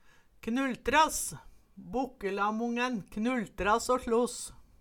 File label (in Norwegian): knultras, Numedalsmål